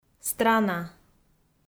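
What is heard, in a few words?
"страна", Komi, female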